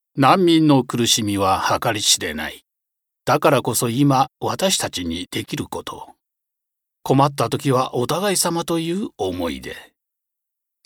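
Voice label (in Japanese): 団体PRナレーション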